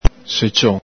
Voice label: "secion", Veneto

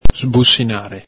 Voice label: "sbusinare", Veneto

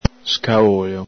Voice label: "scaolo", Veneto

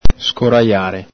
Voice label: "scorajare", Veneto

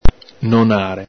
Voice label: "nonare", Venetian

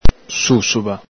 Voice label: "susuba", Veneto

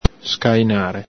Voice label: "scainare", Veneto

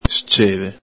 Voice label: "sceve", Veneto

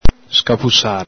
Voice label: "scapusare", Veneto

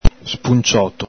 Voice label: "spuncioto", Veneto

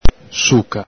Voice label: "suca", Veneto

